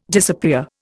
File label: "disappear", Indian